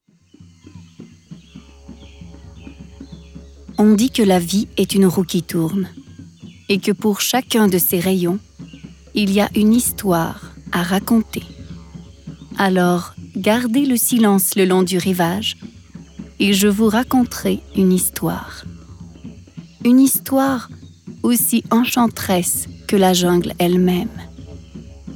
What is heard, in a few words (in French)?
voix narration; livre; livre de la jungle